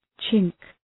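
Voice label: "chink", English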